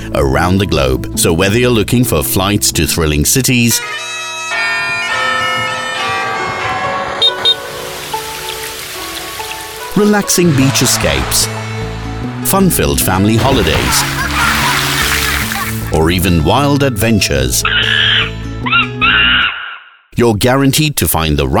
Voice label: Male British Accent